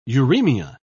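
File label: "uremia", British English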